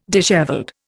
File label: "dishevelled", Indian